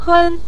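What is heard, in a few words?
"hen", Mandarin Chinese, neutral tone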